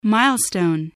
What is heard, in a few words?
"milestone", voice, spelling